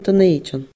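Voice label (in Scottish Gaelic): "Dun Eideann", Scottish Gaelic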